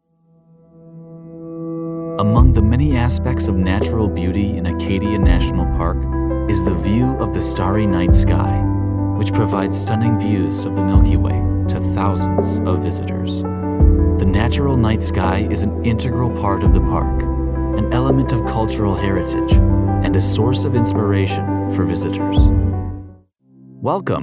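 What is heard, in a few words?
US English narration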